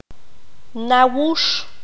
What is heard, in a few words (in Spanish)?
"cuchillo", Q'anjob'al